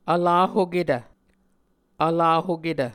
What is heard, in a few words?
"vlahoketv", Muscogee